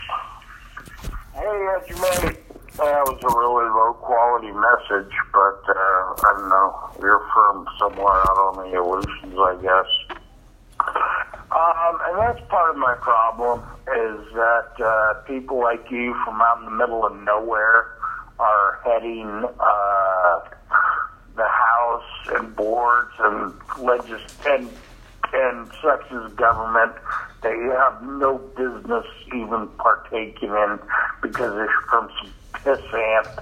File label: voicemail